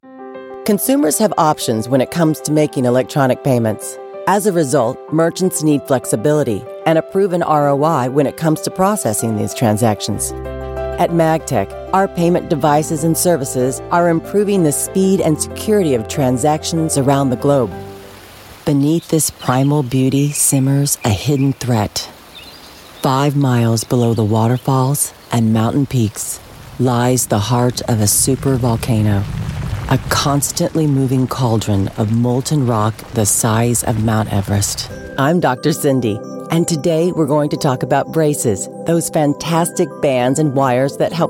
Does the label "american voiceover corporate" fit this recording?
Yes